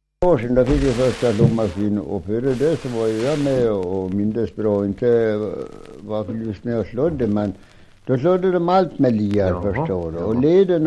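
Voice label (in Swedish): Hed Västmanland, dialekter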